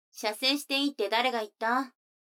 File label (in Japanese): 女の子台詞